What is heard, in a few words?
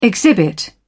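"exhibit", British English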